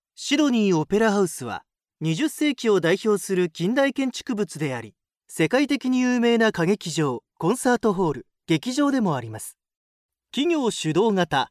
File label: male japanese voice over talent narrative